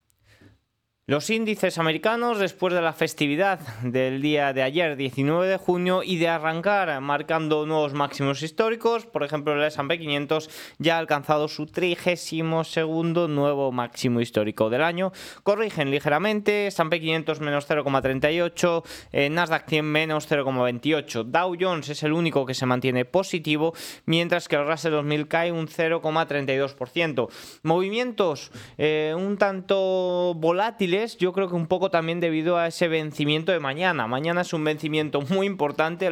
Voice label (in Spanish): radio